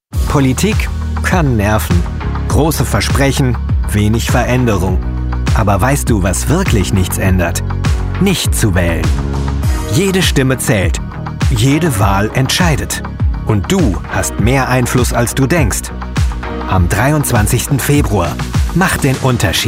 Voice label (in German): Wahlaufruf, Sprecher